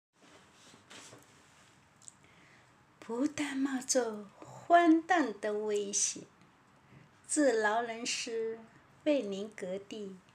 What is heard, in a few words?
Reading aloud in Chinese